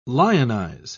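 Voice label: "lionise", British English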